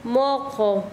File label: Chanka, quechua; rodilla